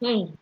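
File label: "hm", Nigerian Pidgin, female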